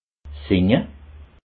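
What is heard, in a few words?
"singe", Alsatian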